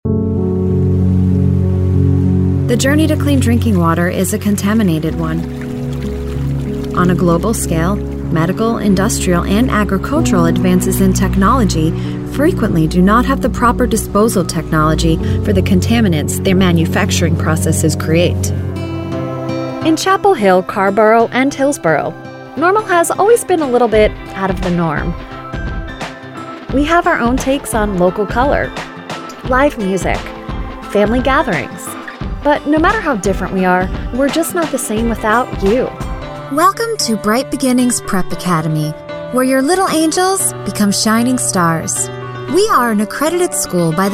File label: Explainer Narration